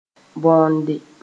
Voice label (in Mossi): "bõode", moore